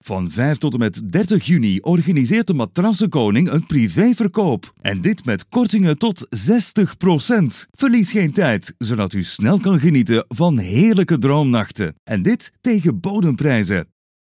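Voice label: General, Flemish Dutch; voice demos; GoLocalise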